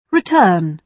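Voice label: "return", British English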